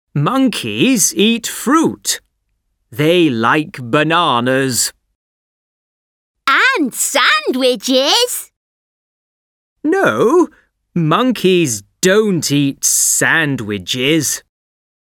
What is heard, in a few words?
At the Zoo British English; oxford read and imagine starter